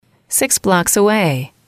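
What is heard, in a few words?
"six blocks away", English, female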